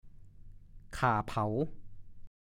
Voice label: "ค่าเผา", ภาษาโคราช

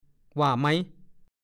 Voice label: "ว่ามั้ย", ภาษาโคราช; เห็นด้วยไหม เห็นพ้องด้วยไหม

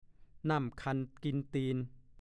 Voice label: "น่ำคราญ", ภาษาโคราช; 1. รำคราญ

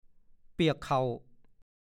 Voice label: "เปิดหวอ", ภาษาโคราช; อาการที่นั่งแบะออก หรือถ่างออกอย่างเปิดเผย อ้าซ่า

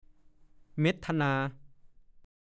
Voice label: "เม่ดทะนา", ภาษาโคราช; เวทนา

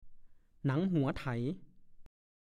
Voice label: "หนังหัวไถ", ภาษาโคราช; เชือกที่ได้จากหนังสัตว์สำหรับผูกคราดไถ

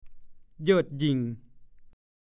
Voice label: "เหยิดหยิ่ง", ภาษาโคราช; เย่อหยิ่ง

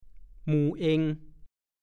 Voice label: "หยึงหยัง", ภาษาโคราช; ขึงขัง เอาจริงเอาจัง

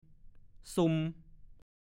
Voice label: ภาษาโคราช; ซุม

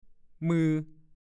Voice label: "มือ", ภาษาโคราช; ลักษณนามของน้ำแข็งที่แบ่งออกจากกั๊ก

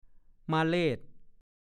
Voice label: "มาเลศ", ภาษาโคราช; แมวสีสวาด

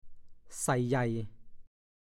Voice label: "ใส่ใหญ่", ภาษาโคราช; ใช้ประกอบคำอื่น มีความหมายไปในทำนองว่าซ้ำเติม กระหน่ำมากยิ่งขึ้น

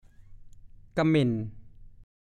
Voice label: "กะเหม่น", ภาษาโคราช

